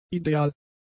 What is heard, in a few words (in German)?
"ideal", German; adjectives